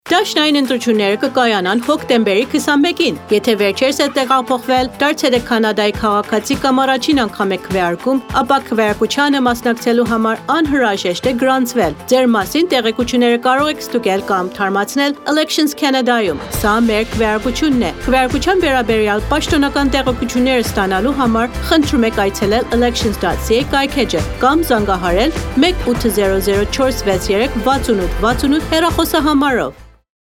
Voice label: Armenian

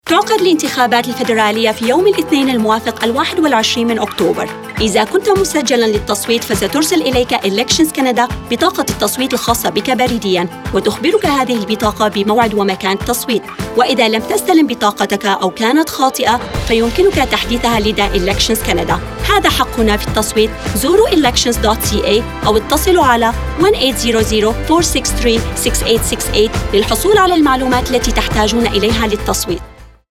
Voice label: voter information card Arabic, radio advert

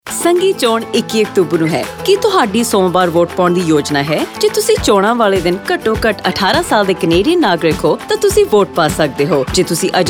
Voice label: election day Punjabi, radio advert

